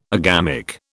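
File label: "agamic", British English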